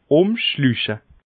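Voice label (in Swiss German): "umschluche", Alsatian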